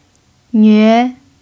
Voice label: "nve2", chinese pinyin